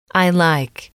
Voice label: "i Like", english

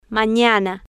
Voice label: "manana", Spanish

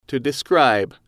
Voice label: to describe, English

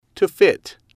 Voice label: to fit, English

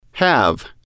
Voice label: have, English

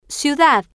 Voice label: "ciudad", spanish

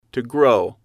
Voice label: to grow, English

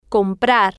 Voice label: "comprar", Spanish

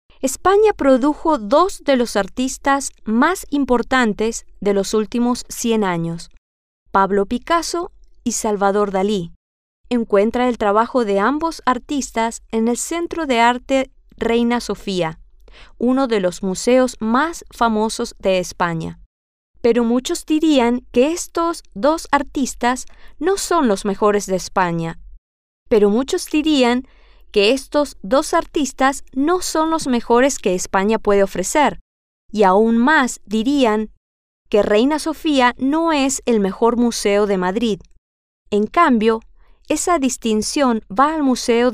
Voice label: spanish; pinturas Mundialmente Famosas

